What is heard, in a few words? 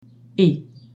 e aigu, accents, French; e only